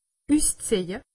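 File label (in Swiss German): "usziehje", Alsatian; medecine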